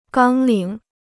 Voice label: "纲领", Chinese